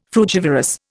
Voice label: "frugivorous", Indian